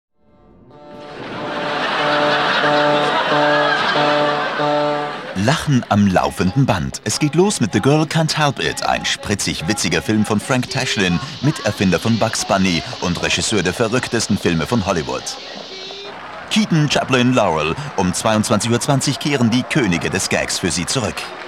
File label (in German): arte on air promotion, stimme sprecher